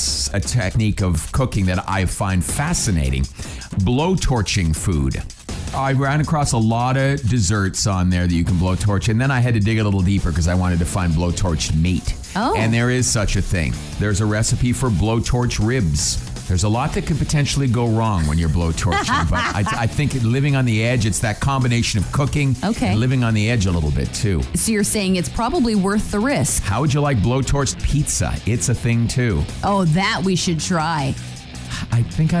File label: Morning Show Promo; ROCK